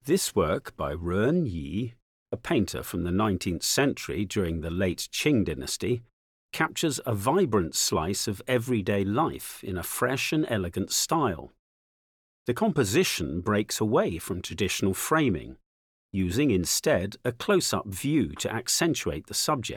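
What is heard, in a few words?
English, audio guide; Riding through cloudy mountains; Ren Yi (1840–1896); Qing dynasty, Guangxu; Heavenly Horse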